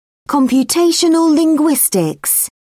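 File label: "computational linguistics", English, British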